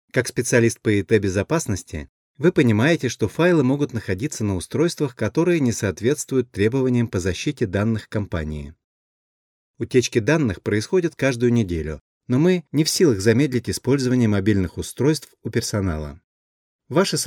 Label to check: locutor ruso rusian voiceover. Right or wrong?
Right